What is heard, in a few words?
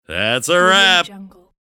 announcer saying that's a rap